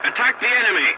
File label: voice message attack target, english; War Thunder